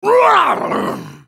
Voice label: spellcast effort, en US; Trundle